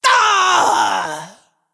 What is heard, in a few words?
Female BloodTroll Death